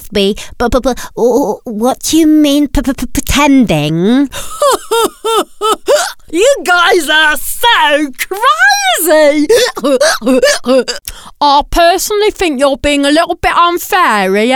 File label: voice artist comedy